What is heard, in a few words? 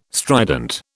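"strident", British English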